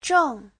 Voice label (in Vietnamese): "zheng", Hán ngữ; (phó từ) đang